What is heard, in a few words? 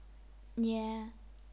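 "nian", first tone, chinese pinyin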